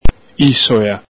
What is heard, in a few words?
"ìsoƚa", Venetian